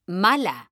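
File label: "mala", Spanish, female; mekanta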